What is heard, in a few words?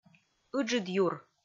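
"ыджыд юр", Komi, female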